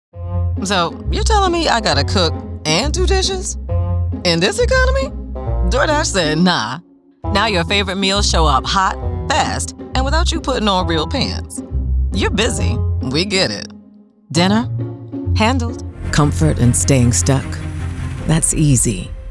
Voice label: Commercial; Commercial Coaching Voiceover Training as a Foundation